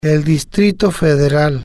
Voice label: "el Distrito Federal", spanish

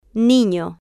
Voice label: "nino", Spanish

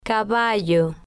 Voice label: "caballo", spanish

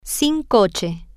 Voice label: "sin coche", Spanish

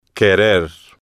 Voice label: "querer", spanish

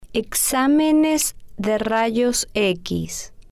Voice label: "examenes De Radio Equis", spanish

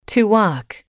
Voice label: "to walk", English

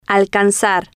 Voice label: "alcanzar", Spanish

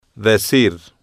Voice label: "decir", Spanish

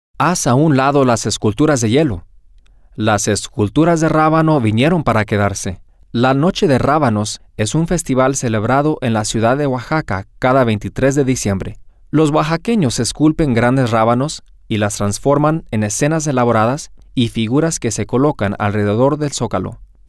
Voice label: Spanish; esculturas De Rabano

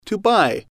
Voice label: "to buy", English

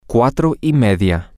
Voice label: half past four, spanish, M

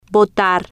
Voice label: "botar", Spanish

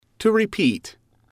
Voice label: "to repeat", English

